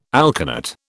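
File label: "alkanet", British English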